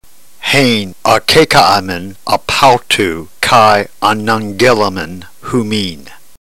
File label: drill, ntgreek